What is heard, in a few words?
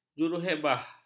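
"juruhebah", Malay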